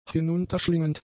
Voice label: "hinunterschlingend", German; adjectives